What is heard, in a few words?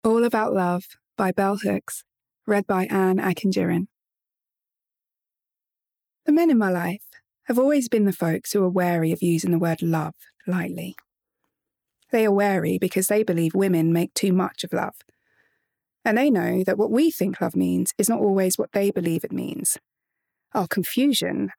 Bookread; All About Love